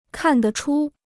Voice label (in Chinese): "看得出", Chinese